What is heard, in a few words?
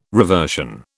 "reversion", British